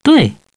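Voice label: "yes", male; TALKNOW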